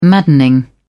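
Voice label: "maddening", British English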